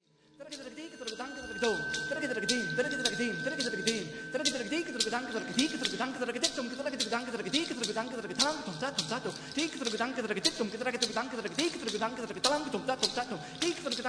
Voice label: misram vox live, taiko tabla